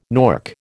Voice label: "nork", American

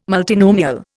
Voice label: "multinomial", Indian

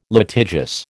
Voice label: "litigious", American

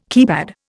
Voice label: "keypad", Indian

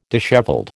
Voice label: "dishevelled", American

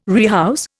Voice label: "rehouse", Indian

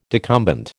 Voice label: "decumbent", American

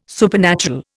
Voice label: "supernatural", Indian

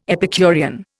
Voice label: "Epicurean", Indian

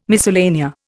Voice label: "miscellanea", Indian

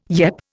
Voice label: "yep", Indian

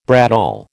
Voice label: "bradawl", American

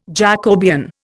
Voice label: "Jacobean", Indian

